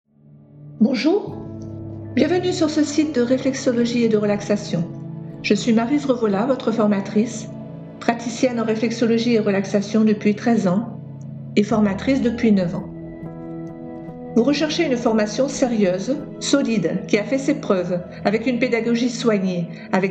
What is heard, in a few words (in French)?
voix et musique